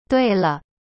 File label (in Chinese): "对了" Chinese; dui le